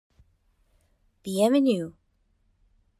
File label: French Welcome